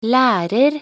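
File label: "lærer", Norwegian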